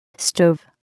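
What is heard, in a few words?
"stove", English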